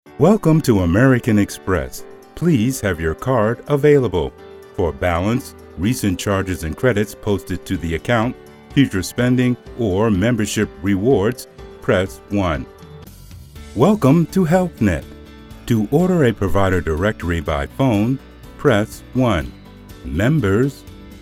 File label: IVR